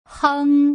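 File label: "heng", Mandarin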